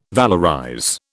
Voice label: "valorize", British